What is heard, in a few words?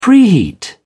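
"preheat", English, British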